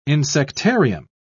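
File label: "insectarium", British English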